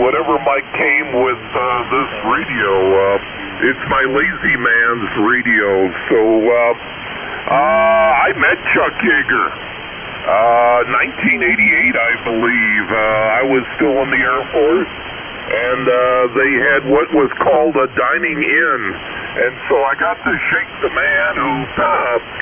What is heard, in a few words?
Yaesu FT-101ZD & Dentron Clipperton Amp; Delavan,Wi.